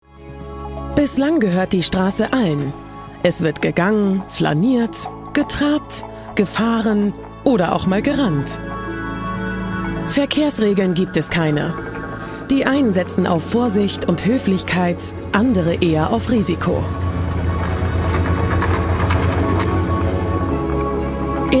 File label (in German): Kommentar Auto Doku; ARTE